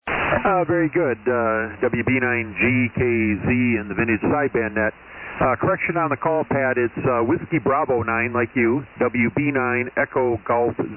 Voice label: Yaesu FT-101ZD & FL2100 Amp; McFarland WI.